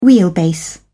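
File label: "wheelbase", British English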